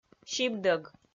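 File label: "сибдӧг", Komi, female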